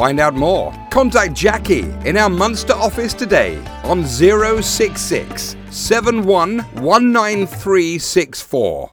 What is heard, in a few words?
irish tv ad